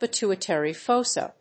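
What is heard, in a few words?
"pituitary fossa", English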